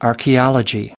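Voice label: "archaeology", American English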